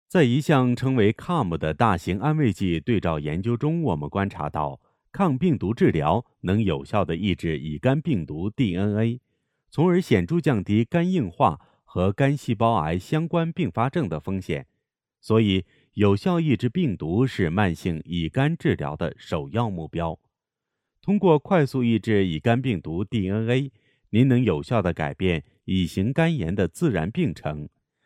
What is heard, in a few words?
MANDARIN